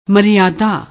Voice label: "maryaada", Punjabi; ਮਰਯਾਦਾ